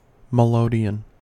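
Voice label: "melodeon", American English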